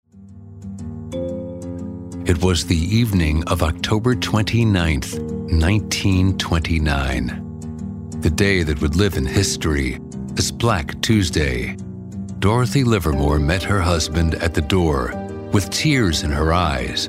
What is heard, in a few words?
english american documentaries; North American English professional, working voice actor